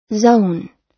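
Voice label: "zone", US English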